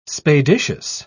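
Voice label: "spadiceous", English, British English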